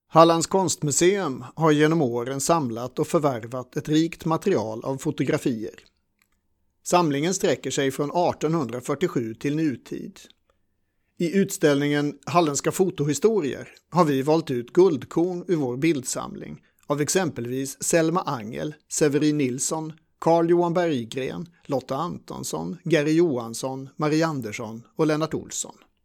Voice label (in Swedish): Intro SVENSKA